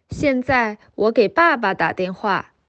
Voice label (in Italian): Cinese